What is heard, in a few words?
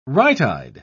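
"right-eyed", British English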